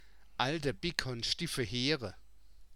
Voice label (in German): alde bigg, mundart